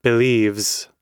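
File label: "believes", US English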